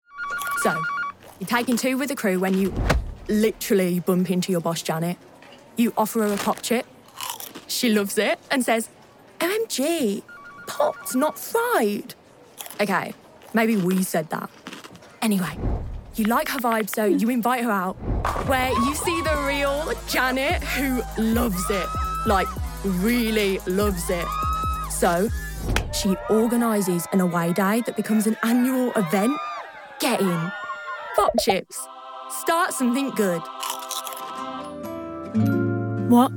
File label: Commercial